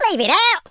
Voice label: "what the", London Cabbie; speech